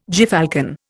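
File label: "gyrfalcon", Indian English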